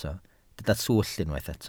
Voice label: "swllt", welsh

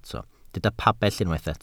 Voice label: "pabell", Welsh

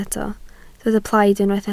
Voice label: "plaid", Welsh

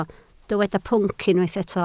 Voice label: "pwnc", Welsh

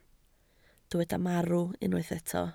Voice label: "marw", Welsh

